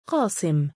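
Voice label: "qaasim", Arabic, male; given name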